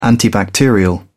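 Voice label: "antibacterial", English, British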